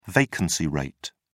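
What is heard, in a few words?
"vacancy rate", British English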